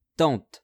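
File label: "tantes", French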